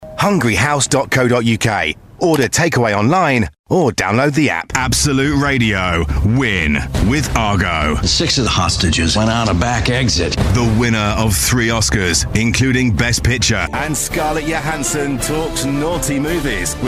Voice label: male british voice over sales, english british

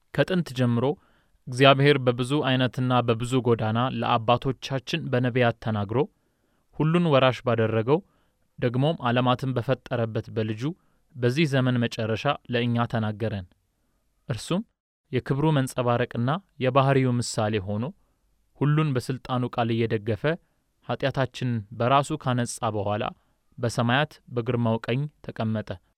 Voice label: male amharic voice over narrative

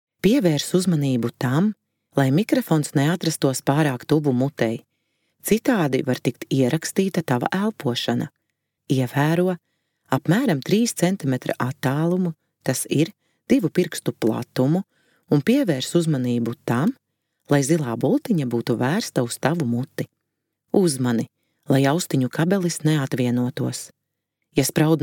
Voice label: female latvian voice over narrative

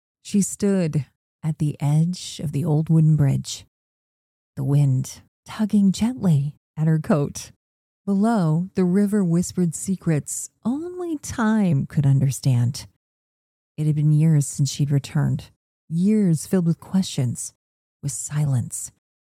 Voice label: female american voice over narrative; english american

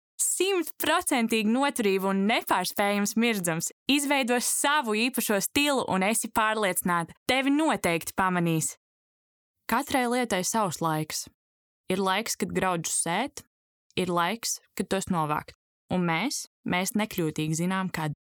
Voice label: female latvian voice over character

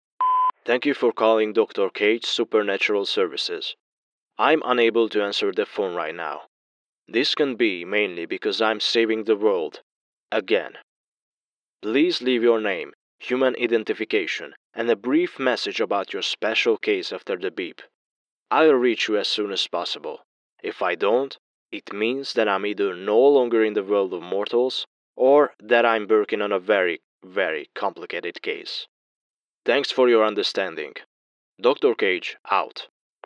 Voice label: male hungarian voice over english

